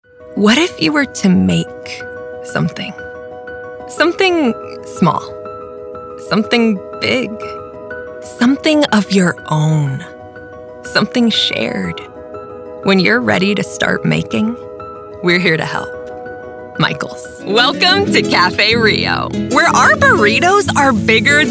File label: commercial